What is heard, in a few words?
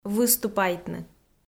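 "выступайтны", Komi, female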